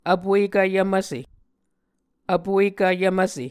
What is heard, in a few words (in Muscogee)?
"vpuekv yvmvse", muscogee